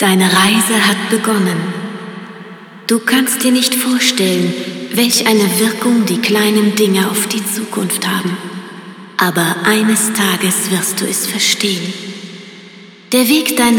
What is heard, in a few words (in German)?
Speech, ger